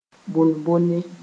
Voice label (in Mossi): "bõn-bõne", moore